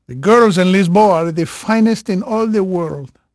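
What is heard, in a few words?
Portuguese male, voice; Pirates Of The Caribbean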